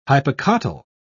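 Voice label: "hypocotyl", British English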